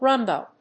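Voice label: "rhumba", English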